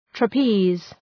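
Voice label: "trapeze", English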